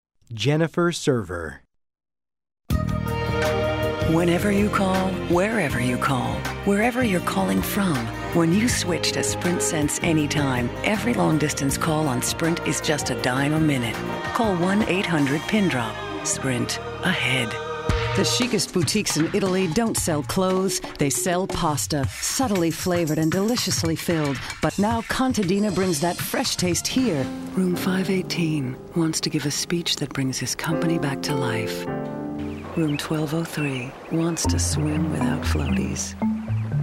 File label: Commercials